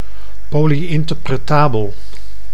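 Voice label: "polyinterpretabel", Dutch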